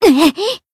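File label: Voice damaged, Japanese; eva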